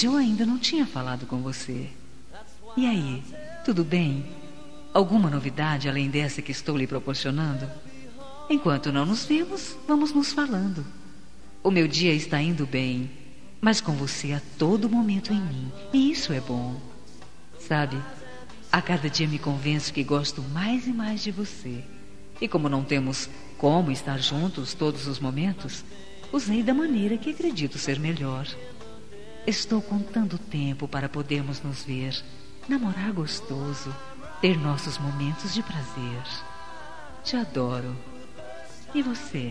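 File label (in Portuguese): Rom namoro por telefone, feminino; Telemensagens; Românticas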